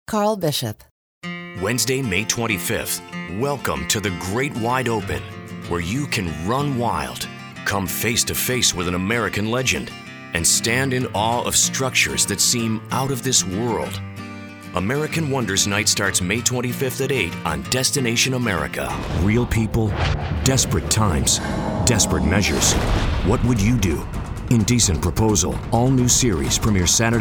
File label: Promo